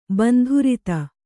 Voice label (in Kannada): "ಬಂಧುರತೆ", Kannada